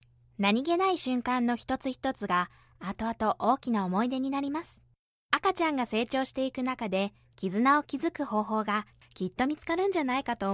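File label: Japanese, Commercial; GoLocalise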